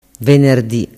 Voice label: "venerdi", dialettu

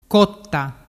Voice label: "cotta", dialettu

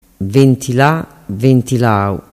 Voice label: "ventilalau", dialettu

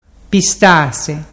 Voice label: pistase, dialettu

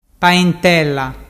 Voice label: paentella, dialettu

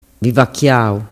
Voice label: "vivachiau", dialettu

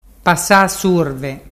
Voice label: pasasurve, dialettu

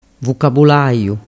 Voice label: "vucabulaiu", dialettu

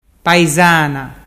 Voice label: paisana, dialettu

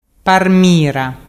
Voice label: parmira, dialettu

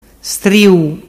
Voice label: "striu", dialettu

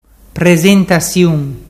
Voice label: presentasiun, dialettu

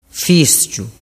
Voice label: "fisciu", dialettu

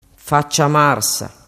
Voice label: "facciamarsa", dialettu

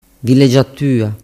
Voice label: "villeggiatua", dialettu

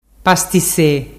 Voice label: pastise, dialettu

